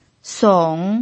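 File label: "song", Mandarin Chinese pinyin, third tone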